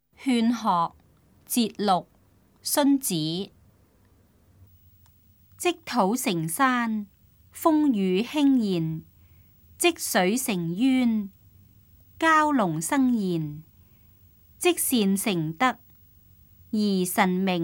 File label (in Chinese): cantonese; 勸學（節錄）; 粵語